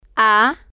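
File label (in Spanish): "a", spanish, female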